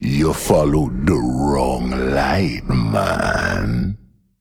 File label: Male Troll Play